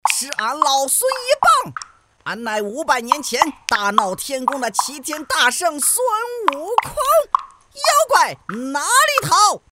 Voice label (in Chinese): 角色模仿; 孙悟空